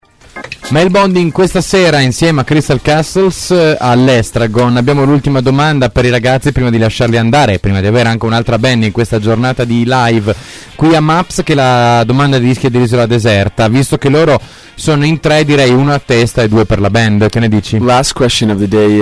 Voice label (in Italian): Intervista